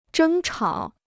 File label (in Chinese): "争吵", Chinese